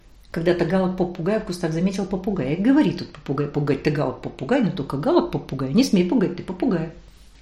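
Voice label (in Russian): скороговорка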